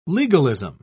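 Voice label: "legalism", British English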